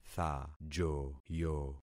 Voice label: "thajoyo", concat syllables; Affixing experiment